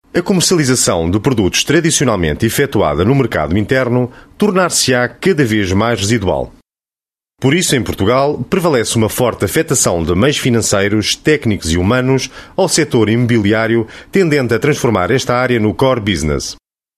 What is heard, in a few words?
eLearning European Portuguese M